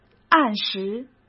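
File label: "按时", Mandarin Chinese; hsk; chinese-flashcards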